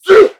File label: "zooh", voice; ooh ahh